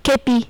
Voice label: "kepi", French

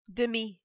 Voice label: "demi", French

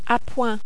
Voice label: "a point", French

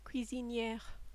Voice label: "cuisiniere", French

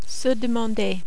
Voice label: "se demander", French

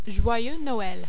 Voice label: "joyeux noel", French

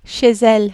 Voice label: "chez elle", French

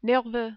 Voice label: "nerveux", French

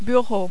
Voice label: "bureau", French

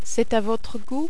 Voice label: "c est a votre gout", French

